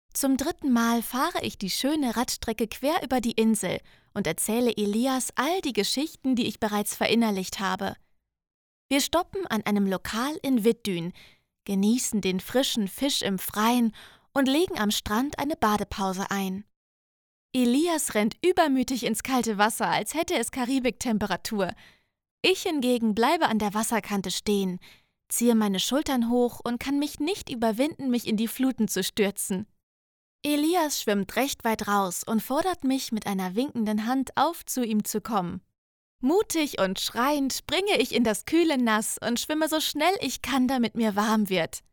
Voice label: Hoerprobe Hoerbuch, Audiobook (Hörbuch), German; Hörbuch - Watt für ein Glück

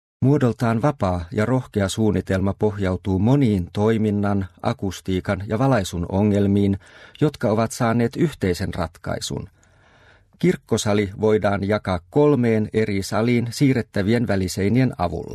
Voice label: finnisch stimmgerecht

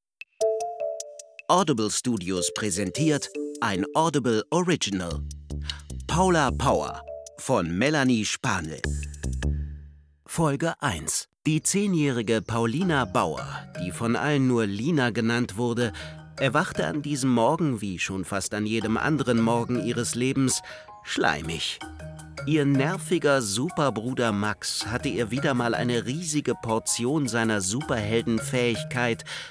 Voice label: erzähler; paula power

